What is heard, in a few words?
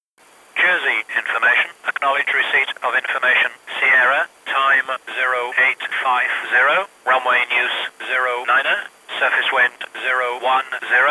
ATIS; Jersey